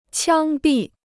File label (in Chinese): "枪毙", Chinese